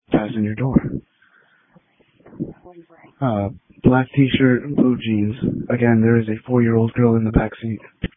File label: Police Tactical Encrypted